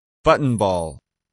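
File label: "buttonball", English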